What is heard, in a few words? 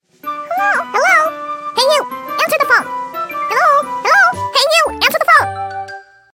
chipmunks hello hello ringtone